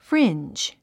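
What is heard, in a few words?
"fringe", English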